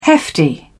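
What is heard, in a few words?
"hefty", British English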